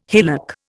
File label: "hillock", Indian